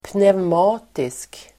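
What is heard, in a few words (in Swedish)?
"pneumatisk", Swedish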